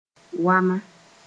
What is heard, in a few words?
"wama", Mooré